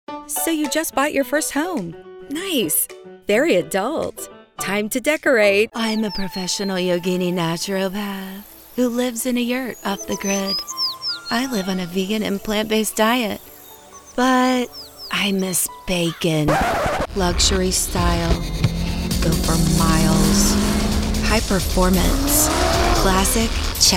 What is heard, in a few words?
Commercial